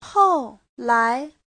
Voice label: "hou4 lai2", chinese; 后来; Giáo trình chuẩn HSK3

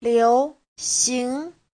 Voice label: "liu2 xing2", chinese; Giáo trình Hán ngữ

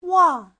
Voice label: "wang4", Chinese; Giáo trình Hán ngữ

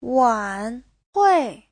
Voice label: "wan3hui4", Chinese; Giáo trình Hán ngữ

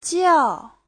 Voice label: "jiao4", chinese

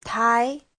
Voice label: "tai2", Chinese; Giáo trình Hán ngữ

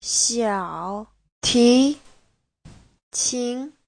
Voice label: "xiao3 ti2 qin2", Chinese; 小提琴; đàn vi-ô-lông, vĩ cầm

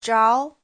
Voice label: "zhao2", chinese; 着

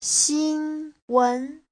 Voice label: "xin1wen2", Chinese; 新闻; (danh từ) tin tức; Giáo trình chuẩn HSK3

